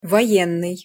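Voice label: "voennyj", Russian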